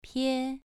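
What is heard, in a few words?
"pie", tone 2, pronunciation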